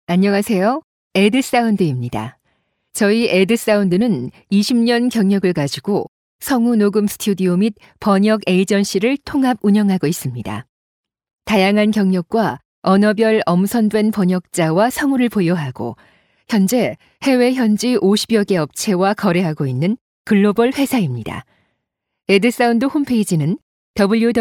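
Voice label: Korean, female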